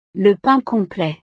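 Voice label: "le pain complet", French; desayuno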